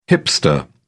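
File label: "hipster", British English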